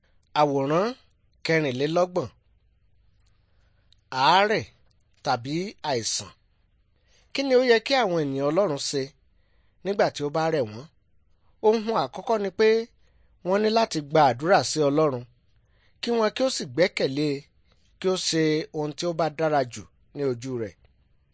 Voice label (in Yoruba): good news yoruba